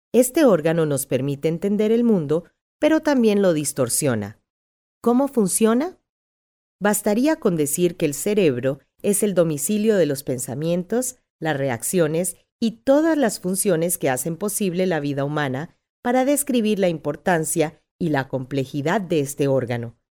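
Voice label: Spanish Latin America female narrative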